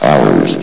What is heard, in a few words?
"hours", vox; Source Engine; bshift